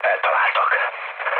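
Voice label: Hungarian aircraft destroyed mood low, speech; War Thunder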